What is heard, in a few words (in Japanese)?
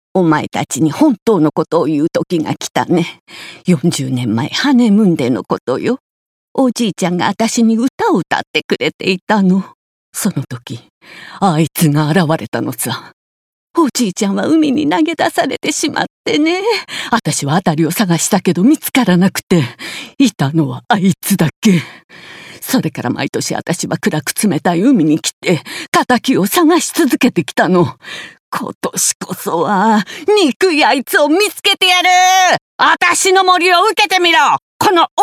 老人